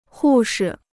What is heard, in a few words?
"护士", Chinese